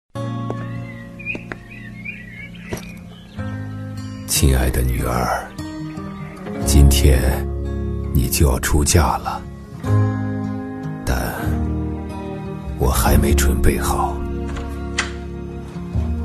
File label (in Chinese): 男 角色 父亲深情; 中英双语; 大气浑厚; 中国婚礼; 湖南卫视